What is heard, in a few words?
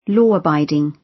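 "law-abiding", British; Crime and punishment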